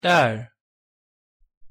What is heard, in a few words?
"딸", Korean